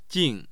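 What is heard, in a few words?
"jing", Mandarin Chinese, tone 4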